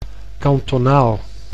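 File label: "kantonaal", Dutch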